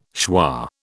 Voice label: "schwa", British